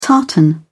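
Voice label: "tartan", British English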